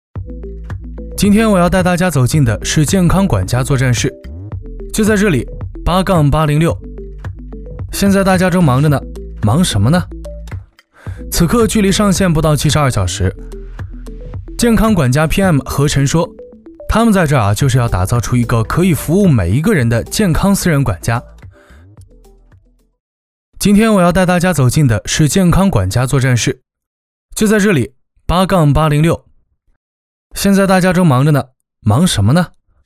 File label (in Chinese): 男 UP主 素人 医疗管家; up主解说